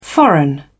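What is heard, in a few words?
"foreign", British English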